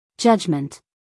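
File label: "judgment", American English, female; everyone-can-use-english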